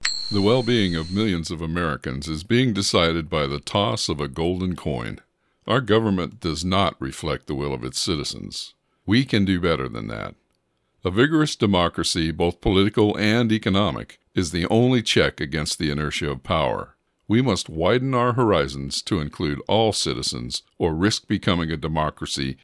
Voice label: Campaign Promo Generic